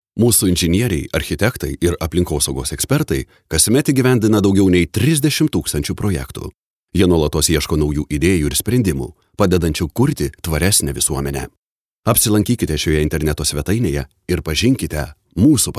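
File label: lithuanian male narration; voice demos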